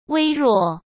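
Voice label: "微弱", Mandarin Chinese; hsk; chinese-flashcards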